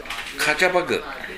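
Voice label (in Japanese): "kachabagu", 南山形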